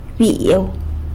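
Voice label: "byiu" flea, San Lucas Quiaviní Zapotec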